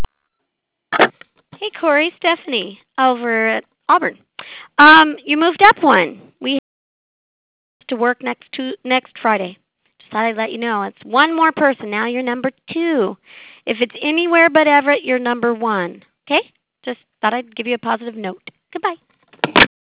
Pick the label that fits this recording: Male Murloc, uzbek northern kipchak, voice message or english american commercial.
voice message